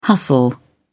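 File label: "hustle", British English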